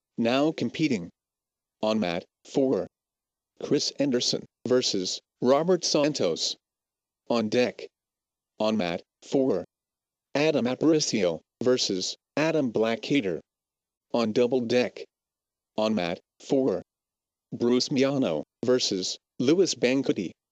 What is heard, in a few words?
Match Announcer; Split Draw